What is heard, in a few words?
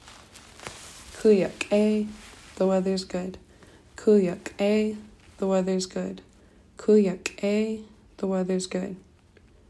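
the weather is good, Tlingit; present; winter weather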